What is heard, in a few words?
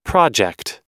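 "project", American English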